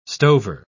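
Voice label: "stover", British English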